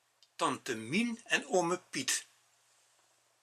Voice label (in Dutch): limburgs